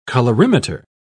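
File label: "colorimeter", British English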